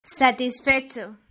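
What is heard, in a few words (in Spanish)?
"satisfecho", Spanish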